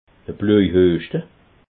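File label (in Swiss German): "bloi", Alsatian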